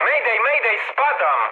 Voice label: aircraft destroyed mood med, speech pol

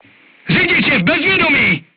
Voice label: voice message driver contused, crew tanks czech

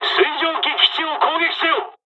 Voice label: dialogs japanese; hon jpn second wave; War Thunder

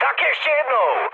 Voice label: bomb failure, speech cze, mood high; War Thunder

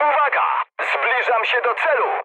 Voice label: near waypoint mood high, speech pol; War Thunder

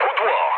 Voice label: bomb failure mood low, speech pol; War Thunder

